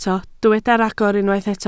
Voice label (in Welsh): "rhagor", Welsh